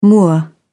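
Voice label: "moor", British English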